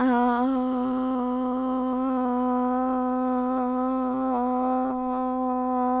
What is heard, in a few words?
cerebellar ataxia